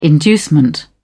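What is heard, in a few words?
"inducement", British English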